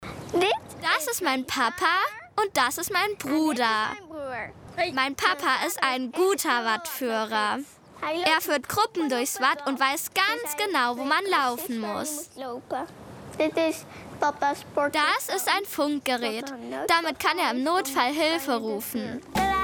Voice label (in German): voice-over, Kindersprecher; kika